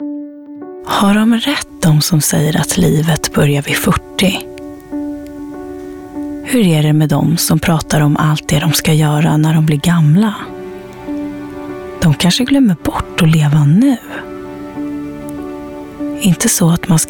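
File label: Swedish, female, character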